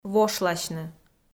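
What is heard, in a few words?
"вошласьны", Komi, female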